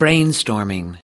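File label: "brainstorming", US English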